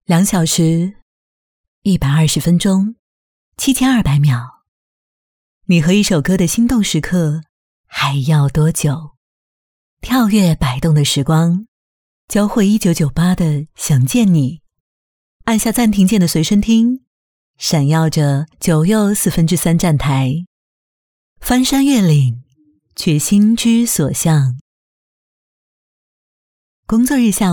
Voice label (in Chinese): 女 电台片花; 明明罗曼史